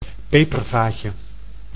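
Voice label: "pepervaatje", Dutch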